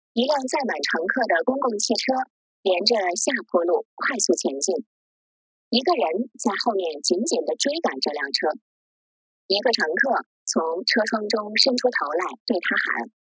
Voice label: Mandarin, Auralization